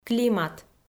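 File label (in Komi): "климат", Komi, female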